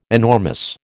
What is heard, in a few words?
"enormous", American